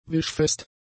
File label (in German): "wischfest", German; adjectives